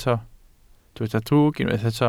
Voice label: "drwg", Welsh

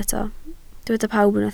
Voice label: "pawb", Welsh

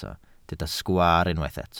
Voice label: "sgwaar", Welsh

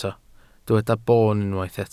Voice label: "boon", Welsh

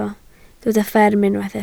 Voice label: "fferm", Welsh

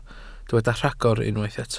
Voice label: "rhagor", Welsh

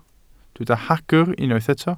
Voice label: "hagr", Welsh

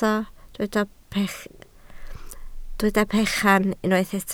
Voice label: "bechan", Welsh

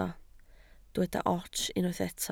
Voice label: "ots", Welsh

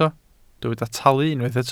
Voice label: "talu", Welsh

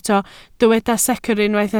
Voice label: "secr", Welsh

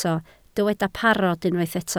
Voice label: "parod", Welsh

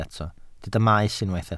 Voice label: "maes", Welsh

